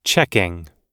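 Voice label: "checking", American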